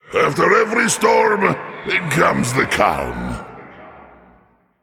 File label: Male Elemental Emote Concede